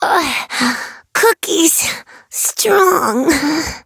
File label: defeat, en; cookie run